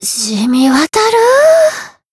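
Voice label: Voice healed, Japanese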